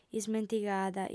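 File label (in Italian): "ismentigada", lingua sarda, fem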